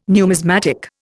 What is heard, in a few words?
"numismatic", Indian